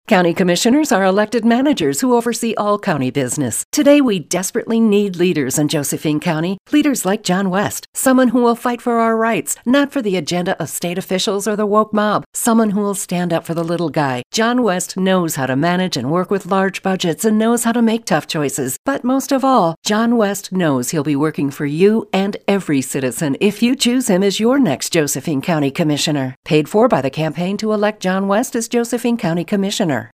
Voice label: Spot Female; John West